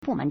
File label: "bu4men2", Chinese